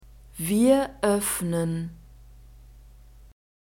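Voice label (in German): "wir öffnen", German; verbs present tense regular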